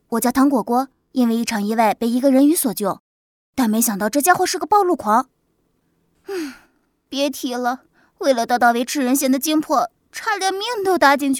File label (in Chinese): 动态漫少女